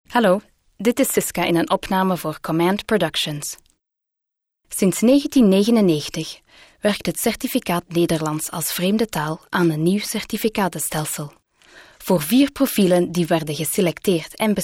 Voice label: Dutch